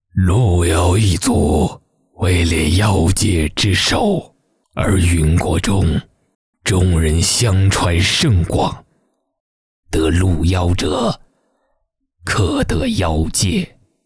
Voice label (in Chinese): 老人音